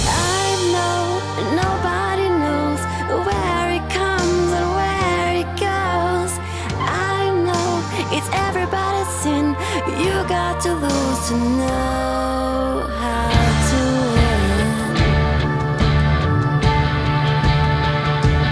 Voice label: Earth (English demo documentary), Voz Padrão - Grave; Inglês - Outros